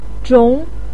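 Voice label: "zhong" second tone, Mandarin Chinese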